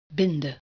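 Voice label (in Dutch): "binden", Dutch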